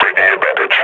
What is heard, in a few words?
announce attack, combine grunt; Half Life Model Pack